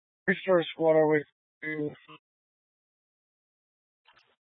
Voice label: Police Dispatch, scanner; Police 1 Dispatch

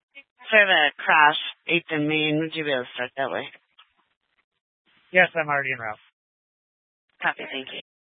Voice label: Police Dispatch; Police 1 Dispatch

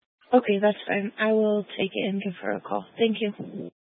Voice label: Sheriff Dispatch, scanner; Mesa County

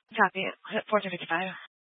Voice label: Police Dispatch

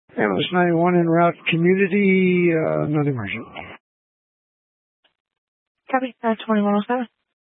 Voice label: Grand Junction Fire Department Dispatch

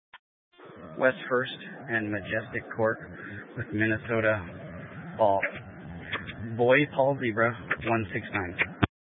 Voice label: Mesa County Sheriff Dispatch

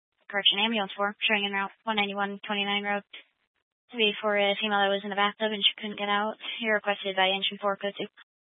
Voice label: Fire Department Dispatch, scanner

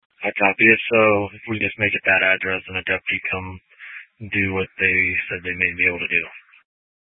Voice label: Police Dispatch; Police 1 Dispatch

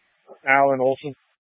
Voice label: Incident Tac 3, Scanner audio recording

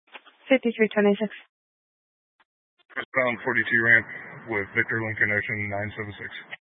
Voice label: Sheriff Dispatch, scanner

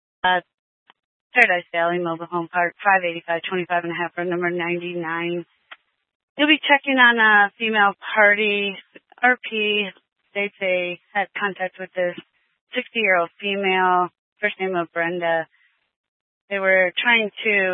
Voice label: Police Department Dispatch, scanner; Grand Junction Police Department Dispatch

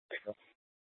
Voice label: Police Dispatch, scanner; Police 1 Dispatch

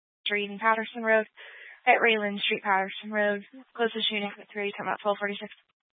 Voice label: Grand Junction Fire Department Dispatch

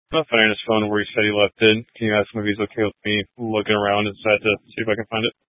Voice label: Police Dispatch, scanner; Police 1 Dispatch

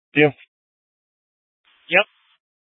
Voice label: Incident Tac 3, scanner

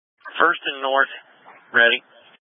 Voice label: Tactical Incidents, scanner

